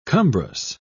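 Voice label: "cumbrous", British English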